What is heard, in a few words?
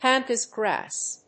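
"pampas grass", English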